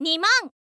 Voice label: "niman", info girl voice